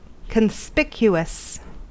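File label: "conspicuous", American English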